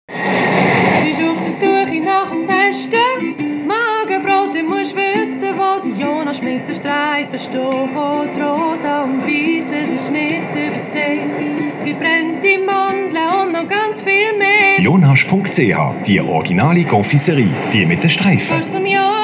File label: radio spot